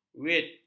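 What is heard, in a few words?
"veld", Malay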